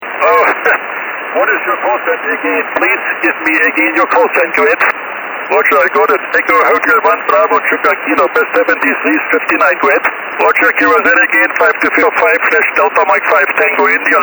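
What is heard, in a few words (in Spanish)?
en QSO desde Namibia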